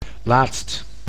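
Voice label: "laatst", Dutch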